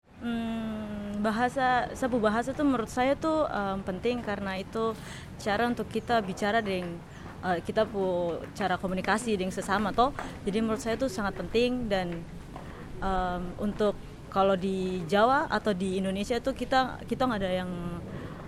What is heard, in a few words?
indonesian accent de merauke papouasie; indonesie